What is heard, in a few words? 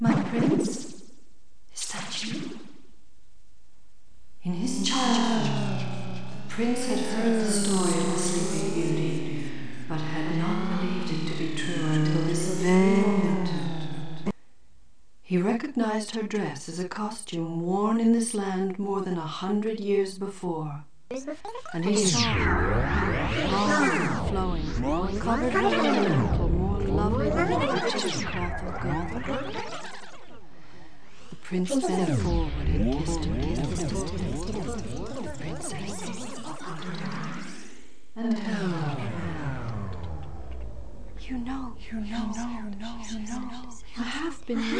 Distorted Mother Goose